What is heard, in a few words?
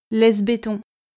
"laisse béton", French